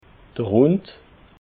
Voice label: "hund", alsace

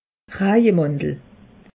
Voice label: "rajemantel", alsace; meteo

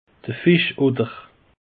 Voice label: "fischotter", alsace; peche

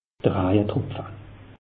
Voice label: "rajetropfe", alsace; meteo

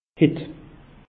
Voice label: "hitt", alsace; meteo

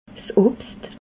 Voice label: "obscht", alsace

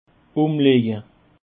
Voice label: "umleje", alsace

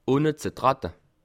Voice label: "ohne", alsace

